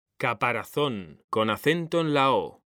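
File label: "caparazón", Spanish, male; mekanta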